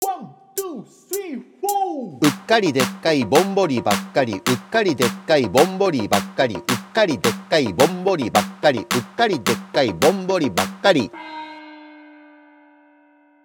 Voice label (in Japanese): 早口言葉リズム（中級編）